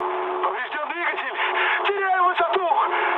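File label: Russian damaged engine mood med, speech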